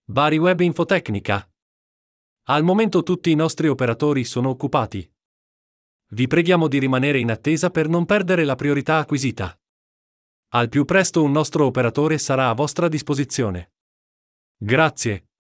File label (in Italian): messaggio attesa telefonica